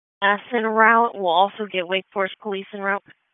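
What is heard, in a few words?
FIRE Operations, p25; wake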